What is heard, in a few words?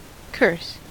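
"curse", US English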